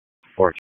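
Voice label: Police Special Operations Tactical; Raleigh; Spec Ops Tac 7; wake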